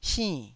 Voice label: "sinn4", Taiwanese